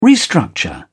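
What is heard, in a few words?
"restructure", English, British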